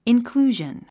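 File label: "inclusion", English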